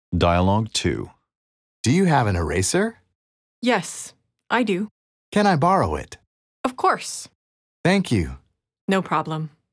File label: Dialogue natural